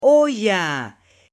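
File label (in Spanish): "olla" Spanish, female; UTENSILIOS; AppLenguaje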